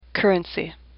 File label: "currency", English; AWL